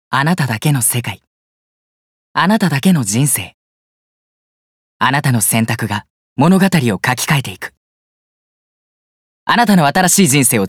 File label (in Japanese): ゲームCM